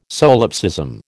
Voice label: "solipsism", American